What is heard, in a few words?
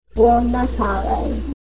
Italian, christmas